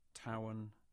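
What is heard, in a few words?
English; Towan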